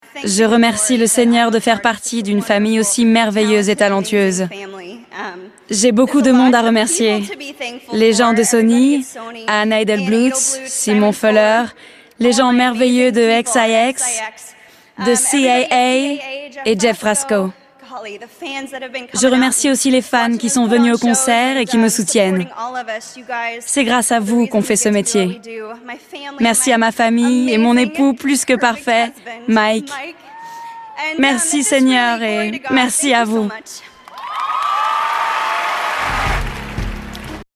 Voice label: Voice Over